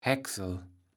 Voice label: "Häcksel", Platt

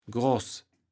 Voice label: "Gross", Platt

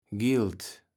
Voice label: "Gild", Platt

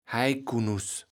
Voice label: "Heijkunnuß", Platt

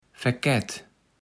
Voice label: "Ferkett", platt

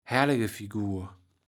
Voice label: "Hällegefigur", Platt

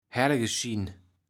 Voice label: "Hällegeschin", Platt

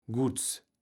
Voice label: "Gutts", Platt

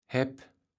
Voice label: "Hepp", Platt

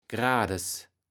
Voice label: "Grades", Platt

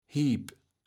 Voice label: "Hiep", Platt

